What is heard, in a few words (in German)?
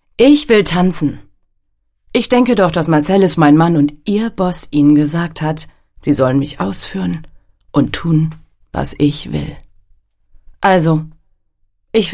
Hörbuch